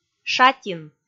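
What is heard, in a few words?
"шатин", Komi, female; kpv audio dict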